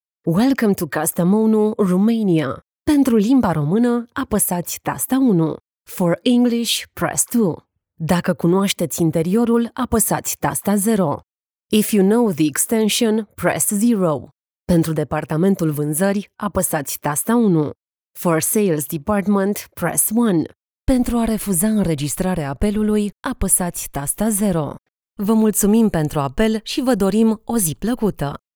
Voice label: ivr